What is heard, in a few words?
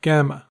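"gamma", American English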